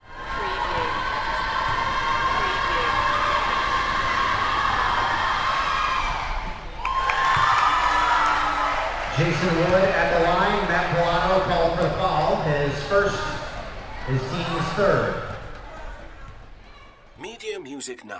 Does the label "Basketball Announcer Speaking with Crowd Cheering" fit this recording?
Yes